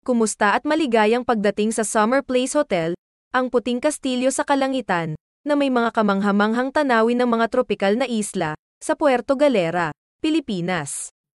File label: Filipino Welcome